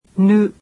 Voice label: japanese alphabet "nu"